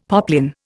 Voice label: "poplin", Indian; Dictionary